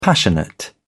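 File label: "passionate", British English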